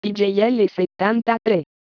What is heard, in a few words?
female